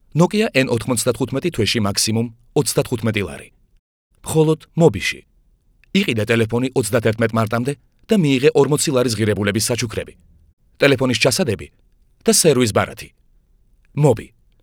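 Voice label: georgian male commercial; voice demos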